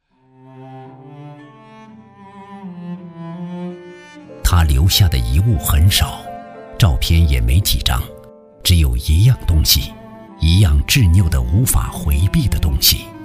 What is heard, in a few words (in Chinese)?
纪录片 人物, 男; 焦裕禄